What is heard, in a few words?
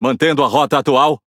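pilots fighter airborne, Brazilian Portuguese; speech; World Of Warships; PT BR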